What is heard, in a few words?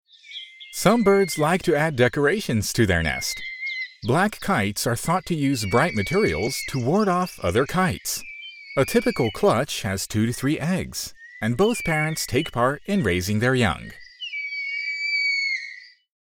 sample tv program